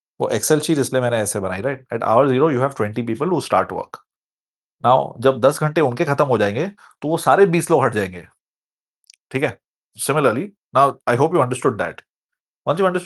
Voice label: voice message; Time and Work (Math)